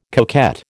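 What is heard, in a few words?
"coquette", American English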